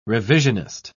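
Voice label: "revisionist", British English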